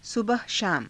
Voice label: "sabaha shaama", hindi; सबहशाम; 朝夕

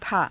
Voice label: "thaa", hindi

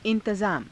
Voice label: "i^tazaama", Hindi

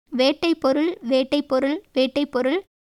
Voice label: Tamil

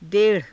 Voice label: "de rha", hindi

